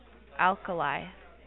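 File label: "alkali", USA, female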